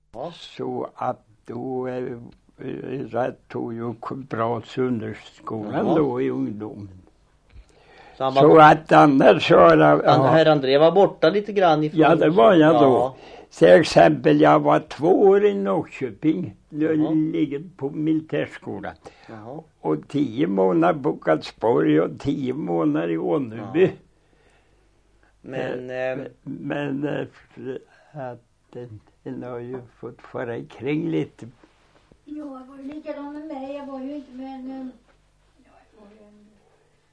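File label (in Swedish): Gammelkil Östergötland, dialekter; Folkminnen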